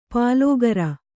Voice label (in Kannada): "ಪಾಲೋಗರ", kannada; pālōgara; Noun; rice cooked in milk.